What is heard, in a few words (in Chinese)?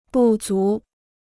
"不足", Chinese